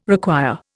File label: "require", Indian